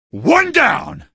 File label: kill, male; video games; npc; source engine; episodic; episode 1